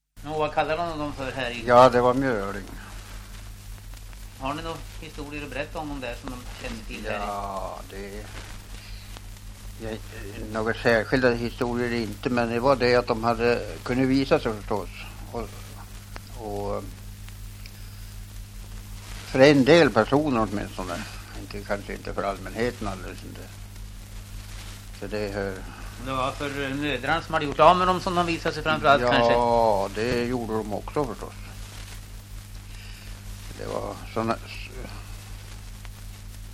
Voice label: Edsbro, dialekter; Folkminnen

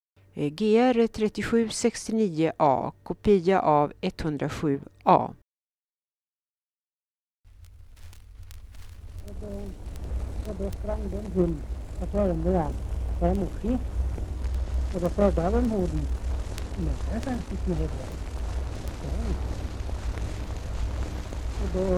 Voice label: dialekter, Svenska sagor; Folkminnen

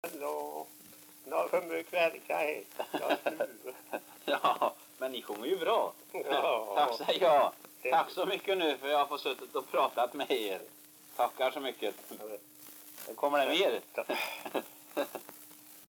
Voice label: dialekter; Folkminnen; Svenska sagor

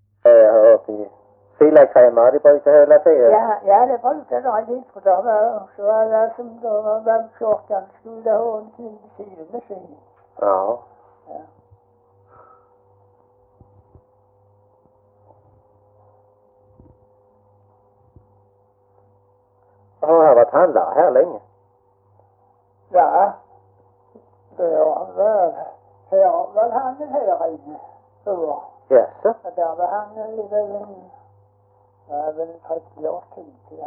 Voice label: Skånes Fagerhult Skåne, Svenska sagor dialekter; Folkminnen

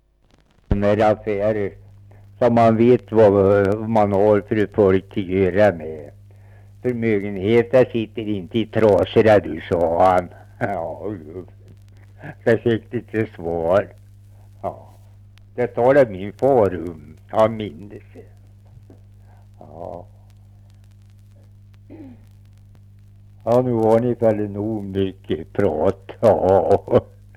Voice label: Svenska sagor, dialekter; Folkminnen

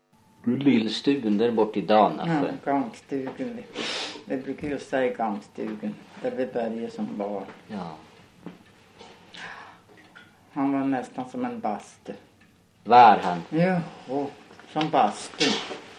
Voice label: dialekter; Folkminnen; Svenska sagor

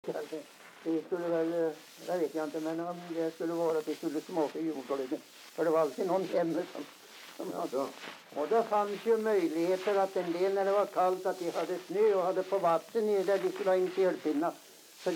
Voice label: dialekter; Folkminnen; Svenska sagor

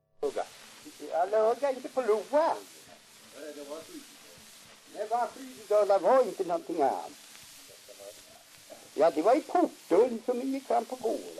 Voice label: dialekter; Folkminnen; Svenska sagor

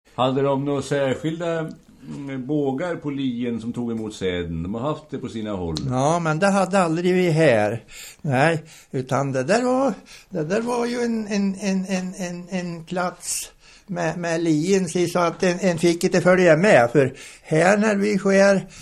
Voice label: Gryt, dialekter; Folkminnen; Svenska sagor

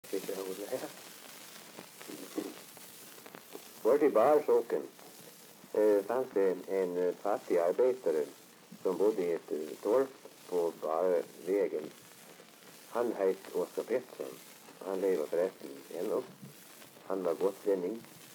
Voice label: dialekter; Folkminnen; Svenska sagor

